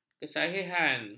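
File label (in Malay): "kesahihan", Malay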